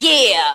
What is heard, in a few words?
"yeah" cartoon, voice; ooh ahh